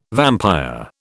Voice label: "vampire", British